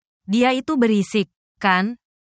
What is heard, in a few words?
indonesia, negative phrases